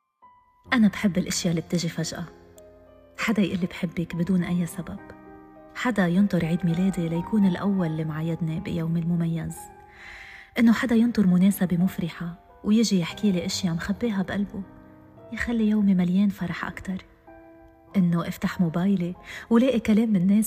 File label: Lebanese Text Sample, lebanese voiceover